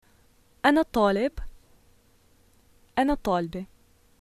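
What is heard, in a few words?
I am a student, Syrian arabic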